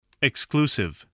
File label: "exclusive", voice, english